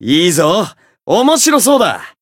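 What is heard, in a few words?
voice encounter, jp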